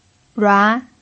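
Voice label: "rua" Mandarin Chinese, second tone, pinyin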